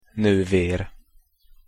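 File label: "nover", Hungarian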